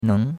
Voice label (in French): "neng2", chinois; Cours-de-Chinois